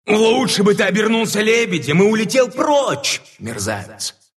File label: rival, ru